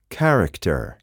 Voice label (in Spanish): character